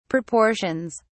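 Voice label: "proportions", British English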